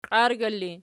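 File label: "qiargyla" ergative, Archi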